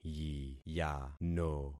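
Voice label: "yiyano", concatenated syllables; Affixing experiment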